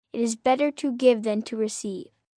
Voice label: "Better To Give", Sayings, 1 child, 9 yrs; Talking; VoiceBox

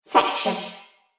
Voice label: Robot Voice Section; Robot Sound Effects; Sci-Fi Sound Effect

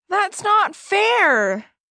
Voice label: Whine Not Fair

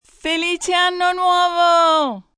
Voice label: New Year, female, Italian; Occasion, event; foreign; Holiday; VoiceBox

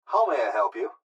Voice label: Fast Food Drivethru Male How May I Help You; Pa Announcement; Industry; Blastwave

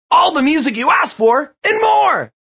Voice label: All Music U Ask, Male; Radio Sound Effects; VoiceBox; Twistedtracks

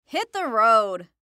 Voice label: Cartoon Voice Female; Cartoon Voices; Blastwave

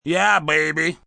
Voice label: Ya Baby, Sexy, fun, male; VoiceBox

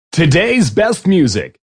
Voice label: Todays Music, Radio, Male; VoiceBox

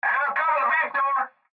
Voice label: Cb Trucker Radio; Radio Voice Sound Effects; Blastwave

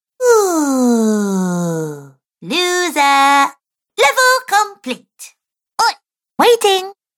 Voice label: Cartoon Girl Variety Pack, Cartoon Voice; Twistedtracks

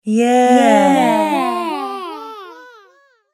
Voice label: "Yeah" With Delay Female Voice; Recorded Voice Sound Effects

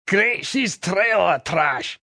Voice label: "Trailer Trash", Male; Attitude

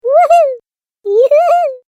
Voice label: Cartoon Game Voice Happy Sounds; Cartoon Voice Sound Effects

